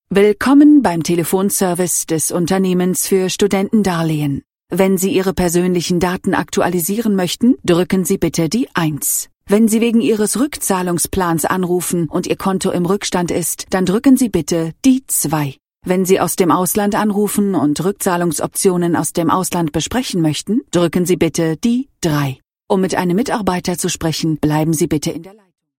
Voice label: ivr

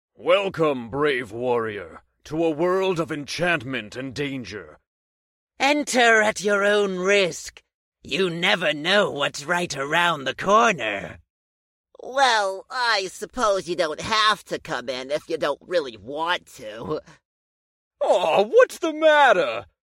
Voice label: animation english

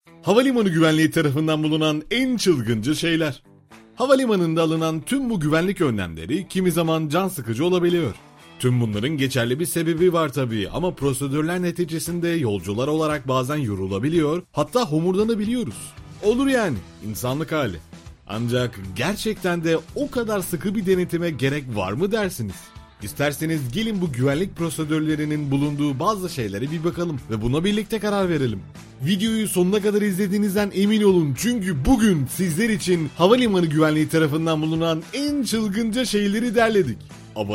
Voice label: youtube narrator

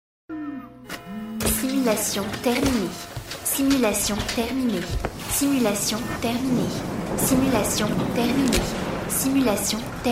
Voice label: animation

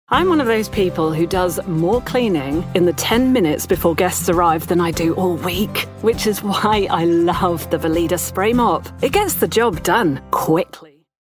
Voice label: commercial; vileda

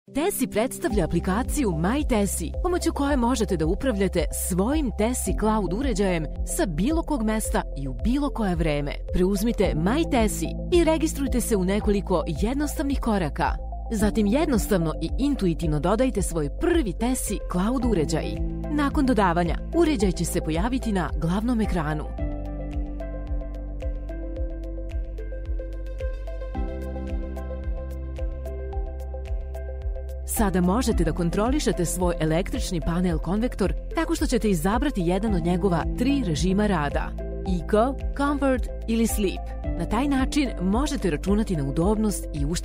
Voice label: explainer; my tessi

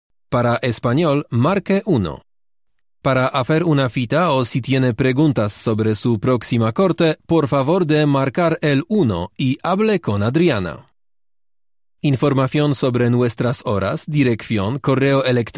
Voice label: spanish ivr

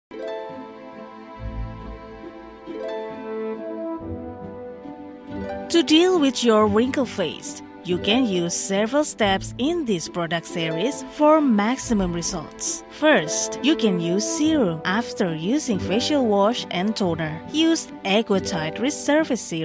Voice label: skin explainer; logically

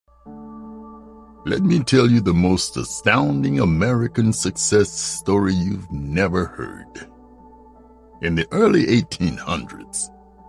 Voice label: documentary; a brave man

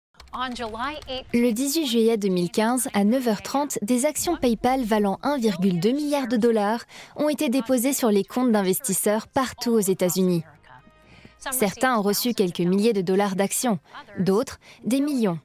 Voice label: voice over

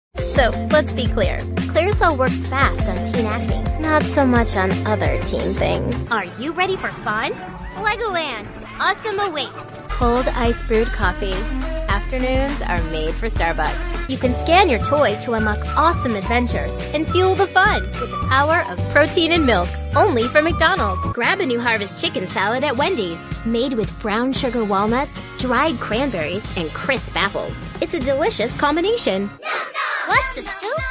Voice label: commercial

